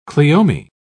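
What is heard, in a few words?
"cleome", British English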